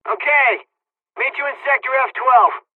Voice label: dlg, hungarian; War Thunder